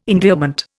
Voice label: "endearment", Indian